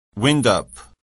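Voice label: "windup", English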